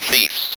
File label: ROB VOX